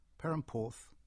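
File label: "Perranporth", English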